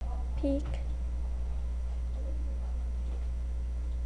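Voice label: "pick", spoken word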